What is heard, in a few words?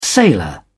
"uk sailor", English